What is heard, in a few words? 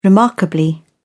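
"remarkably", British English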